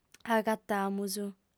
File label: "agatamus", audiofem